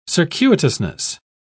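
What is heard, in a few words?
"circuitousness", British English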